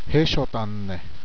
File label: "home", Zuni language